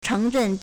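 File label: "chéngzhèn", Chinese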